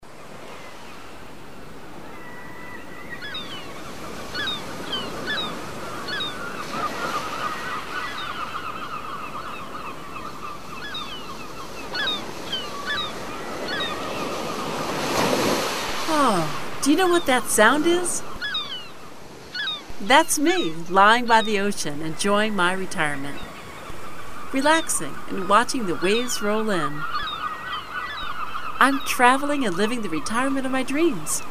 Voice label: Investment Company Radio Advertisement, female voice over